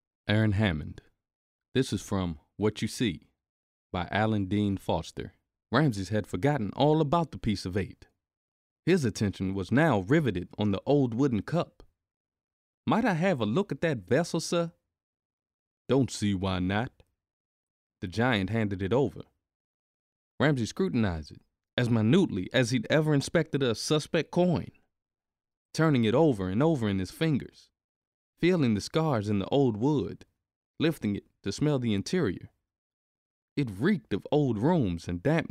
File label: Historical Fiction, audiobooks